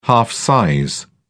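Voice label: "half size", British English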